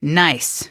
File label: nice, female; Source Engine; video game; npc